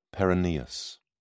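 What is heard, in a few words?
"peroneus", British English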